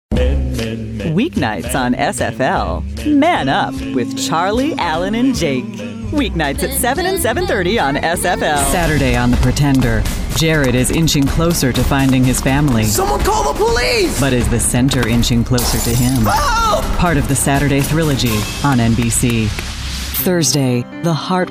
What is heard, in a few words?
english american promos